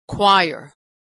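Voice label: choir, American Pronunciation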